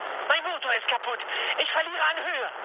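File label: German damaged engine mood med, speech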